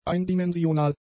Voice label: "eindimensional", German; adjectives